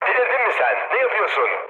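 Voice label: Turkish player killed ally, mood med, speech; War Thunder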